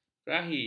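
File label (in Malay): "kerahi", Malay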